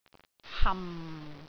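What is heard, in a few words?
"ham4", Cantonese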